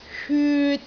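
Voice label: "hyut3", Cantonese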